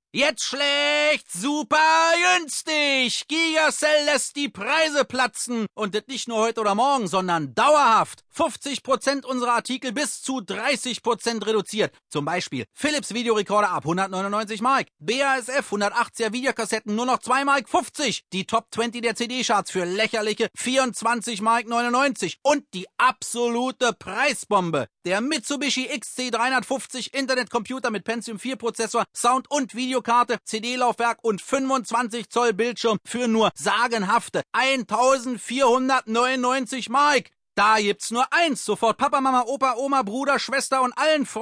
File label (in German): Gigasell Werbung schnell